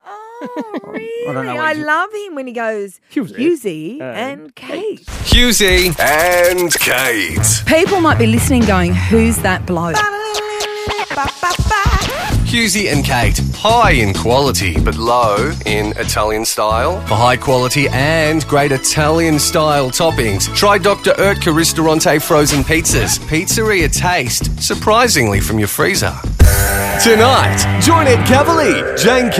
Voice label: Promo